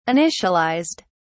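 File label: "initialized", British English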